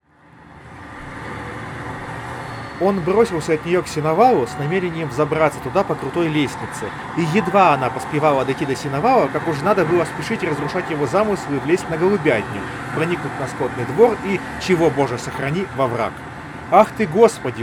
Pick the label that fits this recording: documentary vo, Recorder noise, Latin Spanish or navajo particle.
Recorder noise